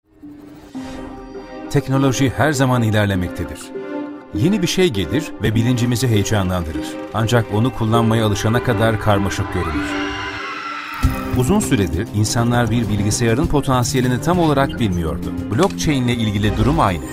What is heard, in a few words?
Turkish